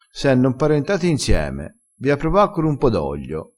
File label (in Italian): "imparentato", crespinese